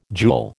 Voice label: "jewel", American